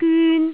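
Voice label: "gyun1", Jyutping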